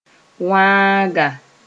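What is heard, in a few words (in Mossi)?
"wãaga", Mooré; Barbary mouse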